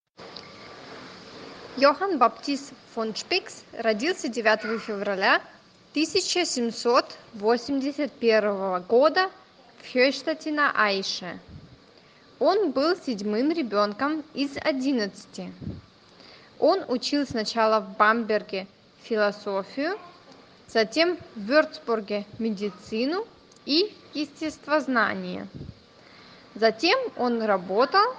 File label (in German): russisch; Das Leben unseres Namensgeber in verschiedenen Sprachen und Dialekten.